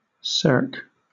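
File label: "cirque", English, British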